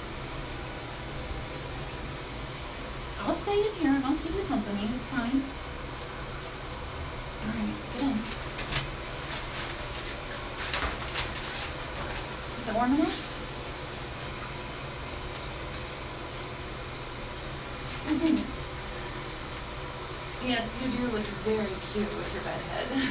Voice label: Morning Routine, Shower Noise, Giggling, Affection, Kissing; F4M; GFE; Cute Galore